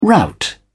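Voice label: "rout", British English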